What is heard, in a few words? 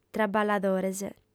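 "traballadores", Sardinian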